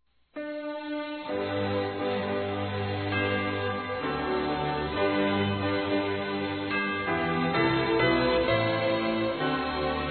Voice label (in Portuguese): NATAL MAMÃE ADOLESCENTE, fem; Telemensagens; Natal e Ano novo